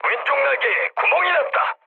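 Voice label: Korean left wing mood med, speech; War Thunder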